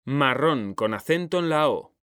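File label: "marrón", Spanish, male; mekanta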